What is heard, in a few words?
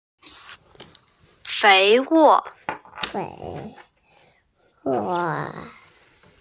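"fei2wo4", Mandarin Chinese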